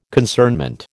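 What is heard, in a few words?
"concernment", American English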